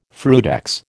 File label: "frutex", American